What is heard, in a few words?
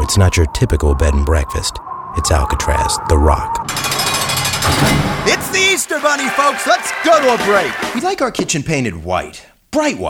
voice demo